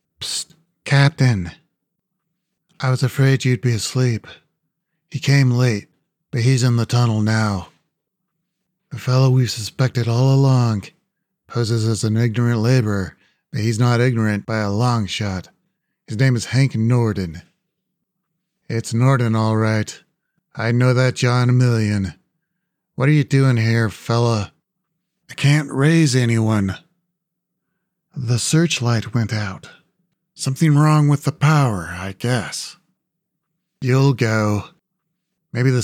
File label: Male - Nerdy - Raspy - Mid-High Pitched; "The Whispering Spheres"